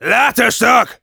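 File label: activate charge, German; Demoman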